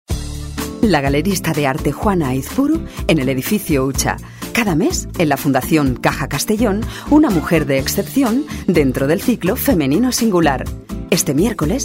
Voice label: Female Spanish